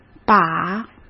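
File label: "把", Mandarin Chinese; hsk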